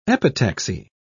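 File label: "epitaxy", British English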